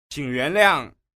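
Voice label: qing yuan liang, Chinese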